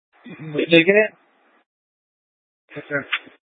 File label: Light Rail Emergency, scanner; Valley Metro Public Transit System; Channel 6; Simulcast F- Tempe